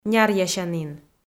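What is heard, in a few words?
"няръясянін" Komi-Zyrian, female; kpv audio dict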